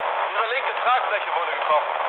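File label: German left wing mood low, speech; War Thunder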